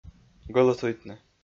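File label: "гӧлӧсуйтны", Komi-Zyrian, male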